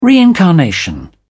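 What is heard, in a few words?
"reincarnation", British English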